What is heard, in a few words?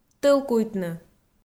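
"тӧлкуйтны", Komi, female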